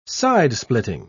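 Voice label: "sidesplitting", British English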